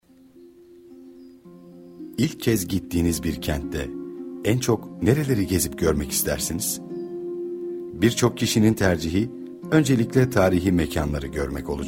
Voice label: narration